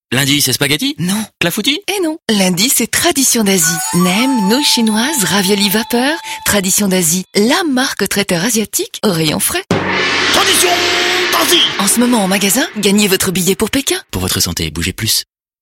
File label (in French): radio enjouee; tradition dasie